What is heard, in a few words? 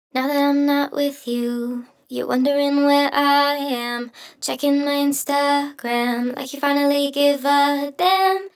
VOX Doubler